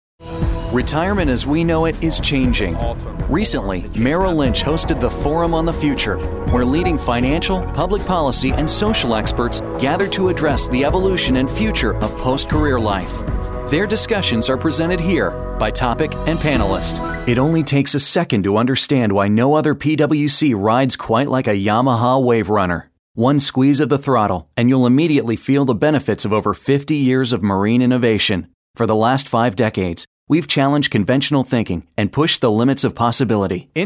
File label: men narration